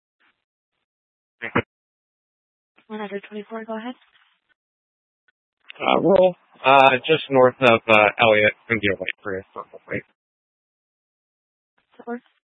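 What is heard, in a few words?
Tempe Police Department, scanner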